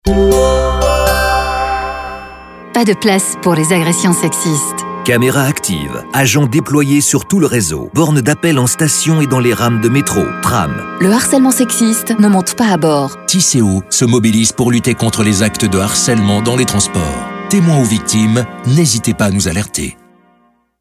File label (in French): annonce sonore; Harcelement sexiste